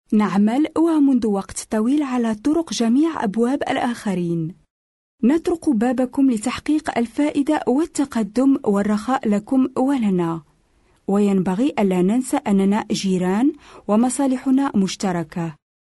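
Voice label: Arabic, female, various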